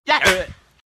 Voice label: pain